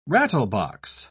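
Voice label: "rattlebox", British English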